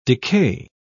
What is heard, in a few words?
"decay", pronounce